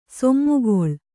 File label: "ಸೀಮೆಗಳೆ", Kannada